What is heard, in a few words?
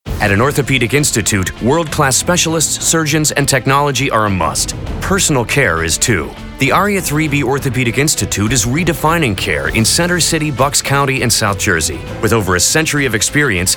Spot